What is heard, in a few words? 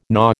"gnaw", American